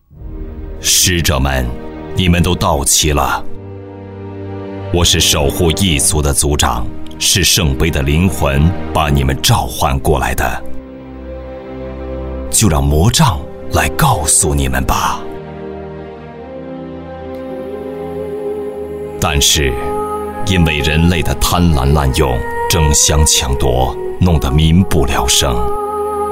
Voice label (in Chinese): 男, 游戏, 老者旁白